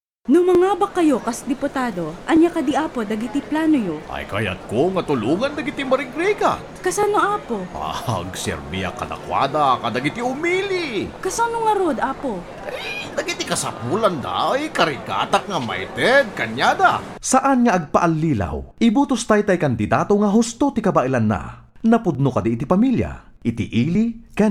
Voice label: Radio Ad, Ilocano; Congressman; mediavoteradvocacy